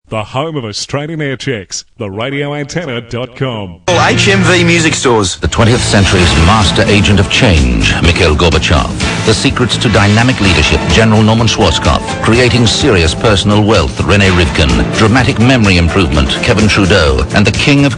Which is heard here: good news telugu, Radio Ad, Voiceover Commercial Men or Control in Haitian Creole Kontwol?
Radio Ad